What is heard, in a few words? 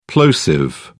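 "plosive", British English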